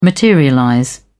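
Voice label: "materialize", British English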